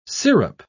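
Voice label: "sirup", British English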